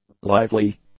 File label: "lively", english; adjectives